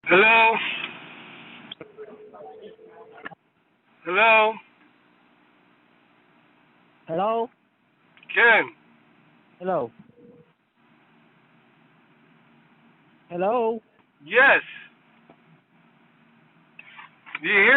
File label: Call recording